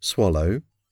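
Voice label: "swallow", English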